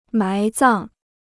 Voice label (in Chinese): "埋葬", Chinese